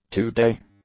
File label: "two-day", English; adjectives